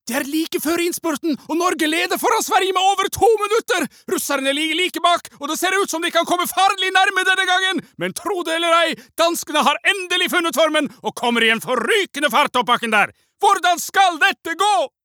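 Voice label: Norwegian dub commentator dialekt sunmore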